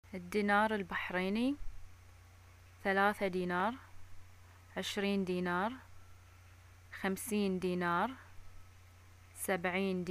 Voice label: shopping money, arabic